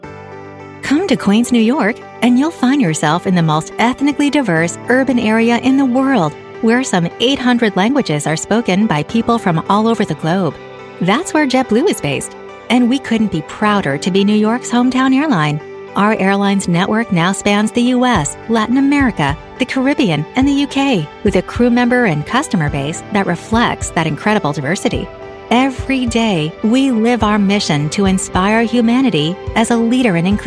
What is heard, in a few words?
english american corporate videos